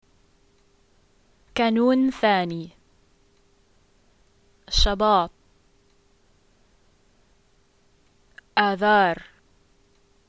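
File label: months, arabic, Jordanian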